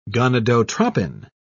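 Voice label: "gonadotropin", British English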